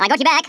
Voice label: revenge, Stiff Upper Lip

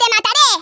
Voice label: just you wait, Hispanic; Worms Armageddon

